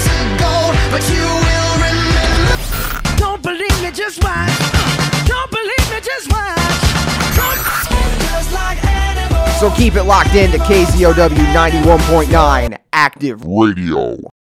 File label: music liner